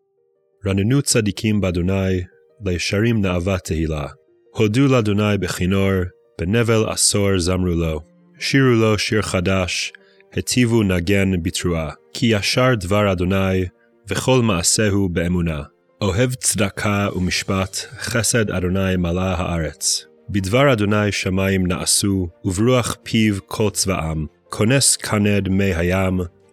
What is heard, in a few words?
poetry and prayer psalm hebrew